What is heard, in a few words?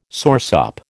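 "soursop", American English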